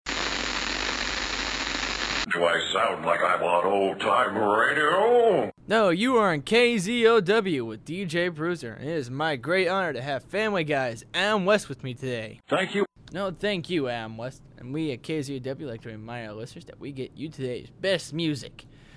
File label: movie liner